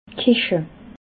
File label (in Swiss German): "keche", alsace